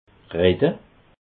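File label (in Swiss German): "redde", Alsatian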